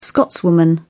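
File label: "scotswoman", British English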